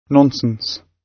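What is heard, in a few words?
"nonsense", British English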